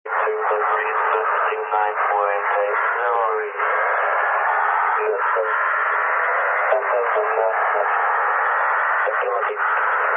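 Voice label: radio upper sideband weather report; Odessa Radio (UUI)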